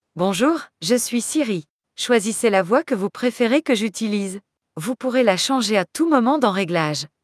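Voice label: French, France; iOS 26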